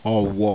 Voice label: "owo", Yoruba; ORAL VOWELS; oko-ojo-owo